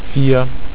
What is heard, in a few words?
"vier", German